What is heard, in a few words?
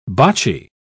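"botchy", British English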